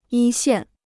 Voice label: "一线", Chinese

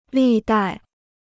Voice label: "历代", Chinese

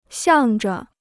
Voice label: "向着", Chinese

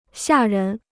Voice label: "吓人", Chinese

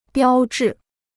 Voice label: "标致", Chinese

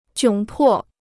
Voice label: "窘迫", Chinese

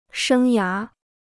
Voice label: "生涯", Chinese

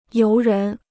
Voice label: "游人", Chinese

